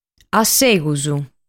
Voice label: "Assegus", sardu

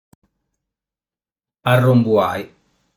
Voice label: "Arrumbuai", sardu

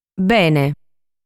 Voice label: "Bene", sardu

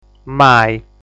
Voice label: "Mai", Sardu

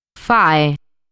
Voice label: "Fae", sardu

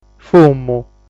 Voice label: "Fumu", sardu

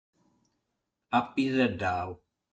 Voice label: "Apiseddau", sardu

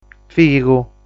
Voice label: "Figu", sardu

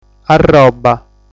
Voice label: "Arroba", sardu

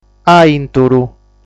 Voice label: "Aìnturu", sardu

